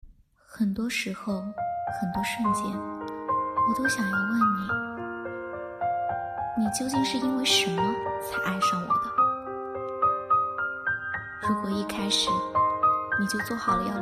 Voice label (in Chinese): 情感